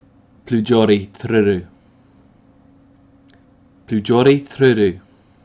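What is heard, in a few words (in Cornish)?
"Pluwjori Truru", Cornish Place Names Pronunciation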